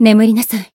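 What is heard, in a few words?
Voice finish, Japanese; anaberu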